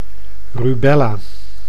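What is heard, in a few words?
"rubella", Dutch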